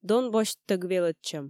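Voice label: "дон босьттӧг велӧдчӧм", Komi, female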